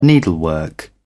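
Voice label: "needlework", British English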